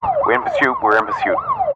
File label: emergency police radio male officer in car sirens were in persuit